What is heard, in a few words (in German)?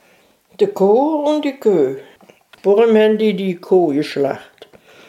"Koh" im Satz